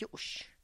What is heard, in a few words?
"yux", very Old, tlacochahuaya